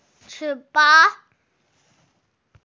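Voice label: "wing", hearing impaired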